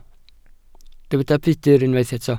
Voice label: "budr", Welsh